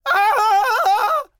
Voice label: Enemy Generic Human Spawn Disbelief